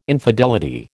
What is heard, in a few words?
"infidelity", American